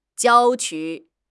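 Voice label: "jiao1 qu1", Chinese; HSK4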